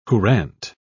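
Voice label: "courante", British English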